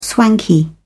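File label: "swanky", British English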